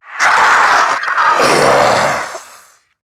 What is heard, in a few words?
Death, en; Zariman Wraith